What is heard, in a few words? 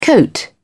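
"coat", British English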